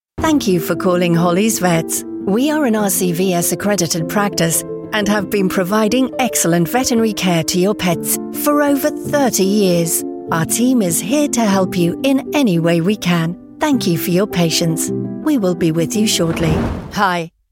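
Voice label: on hold IVR, Natural Female Voice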